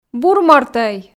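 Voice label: Komi, female; бур мортӧй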